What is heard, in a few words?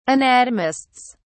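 "anatomists", English, British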